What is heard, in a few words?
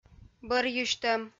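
"бӧрйысьтӧм", Komi, female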